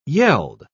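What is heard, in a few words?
"yeld", British English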